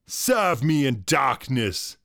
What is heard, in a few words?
vocal witch doctor dialogue serve me in darkness male dry